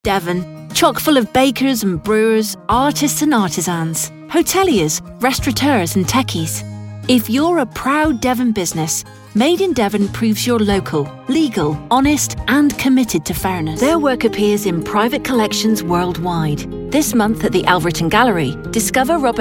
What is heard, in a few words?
commercial